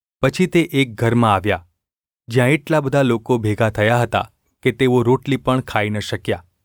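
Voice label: gu ulb mrk, Gujarati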